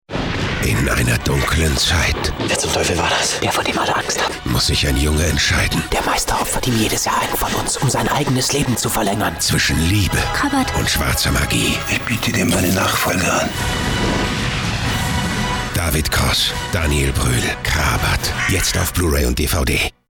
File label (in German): Geheimnisvoll